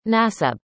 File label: "nasib", arabic; indonesian boy names